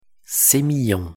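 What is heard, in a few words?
pronunciation french semillon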